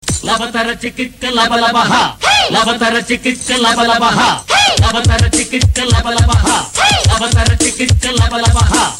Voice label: Telugu; Yama Leela

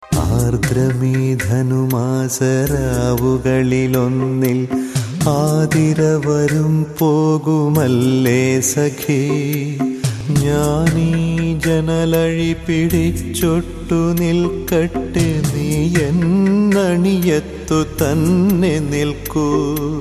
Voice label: Malayalam Kavitha

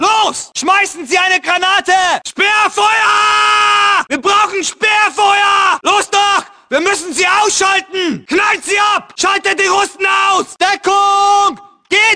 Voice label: Wehrmacht Voices

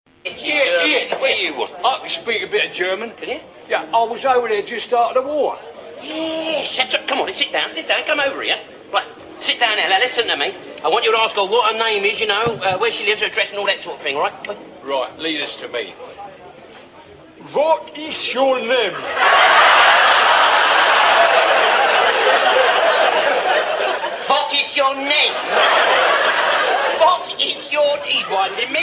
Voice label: Speaks German

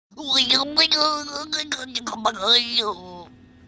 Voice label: Gibberish

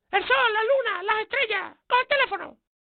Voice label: Spanish Pick It Up

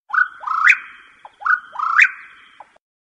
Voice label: Alien Voice

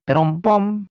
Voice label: pitched down; Yoshi

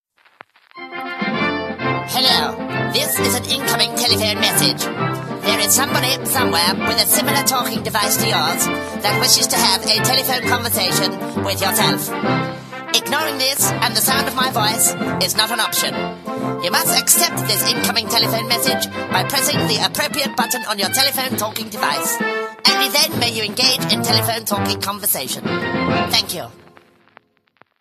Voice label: Announcement

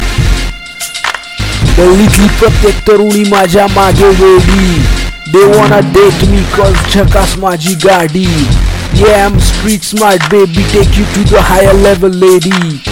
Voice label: Marathi Rapper

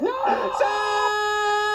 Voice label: yelling; Pewds; sven

